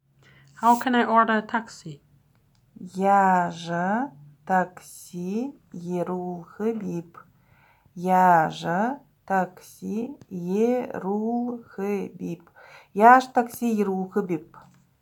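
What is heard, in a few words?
Buryat How can I order a taxi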